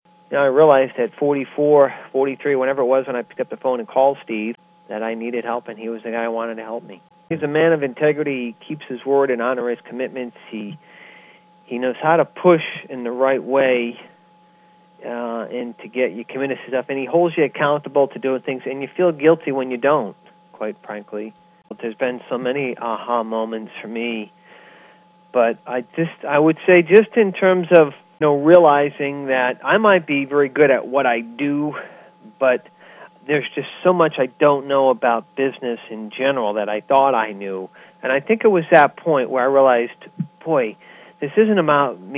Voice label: Testimonial